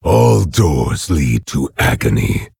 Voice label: Orc Emote Threaten; Nerzhul